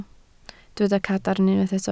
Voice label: "cadarn", Welsh

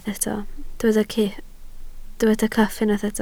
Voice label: "cyff", Welsh

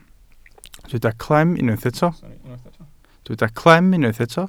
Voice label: "clem", Welsh

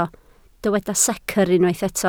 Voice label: "secr", Welsh

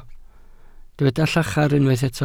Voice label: "llachar", Welsh

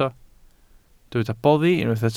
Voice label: "boddi", Welsh

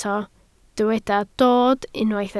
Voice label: "dod", Welsh

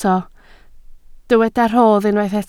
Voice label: "rhodd", Welsh

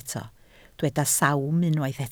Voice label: "sawm", Welsh

